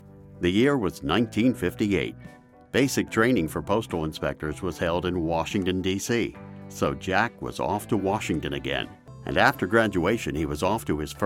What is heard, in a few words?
Documentary